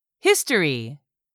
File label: "history", English; 3000 words